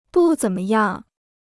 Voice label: "不怎么样", Chinese

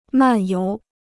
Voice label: "漫游", Chinese

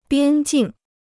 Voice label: "边境", Chinese

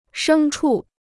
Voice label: "牲畜", Chinese

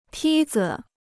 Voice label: "梯子", Chinese

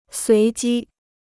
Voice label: "随机", Chinese

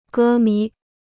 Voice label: "歌迷", Chinese

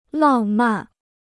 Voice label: "浪漫", Chinese

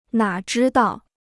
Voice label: "哪知道", Chinese

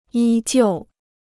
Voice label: "依旧", Chinese

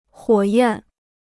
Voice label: "火焰", Chinese

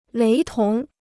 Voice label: "雷同", Chinese

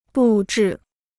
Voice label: "布置", Chinese

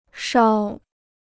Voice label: "梢", Chinese

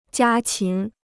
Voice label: "家禽", Chinese

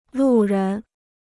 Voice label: "路人", Chinese

